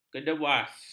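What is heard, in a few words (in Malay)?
"kedewas", Malay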